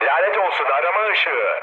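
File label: searchlight, mood med, speech tur; War Thunder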